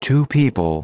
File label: two people